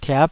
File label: "tiap5", taiwanese Syllables